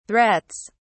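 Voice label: "threats", British English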